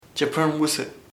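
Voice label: crow corneja, Chamacoco word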